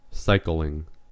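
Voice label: "cycling", US English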